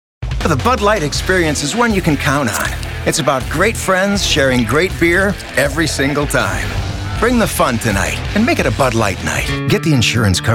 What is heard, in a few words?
commercials